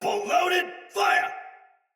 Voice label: Shoot Arrow Soldier Sword Agent Male, Battle Barks; Sinecure Melee Sword Agent